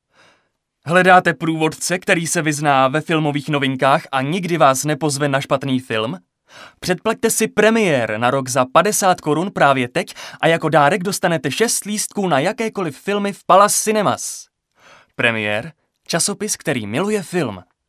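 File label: Male Czech commercial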